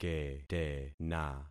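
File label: "ketena", concatenated syllables; Affixing experiment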